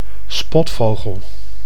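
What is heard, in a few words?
"spotvogel", Dutch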